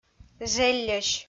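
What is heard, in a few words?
"желльӧсь", Komi, female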